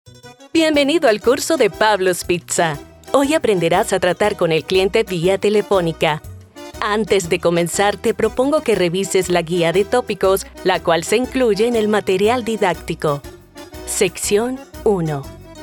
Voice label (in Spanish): Narrativo